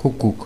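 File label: cuckoo, Dutch; animals; birds; tuxpaint-stamps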